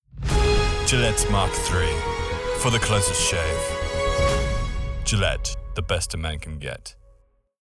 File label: VO COMMERCIAL; GILETTE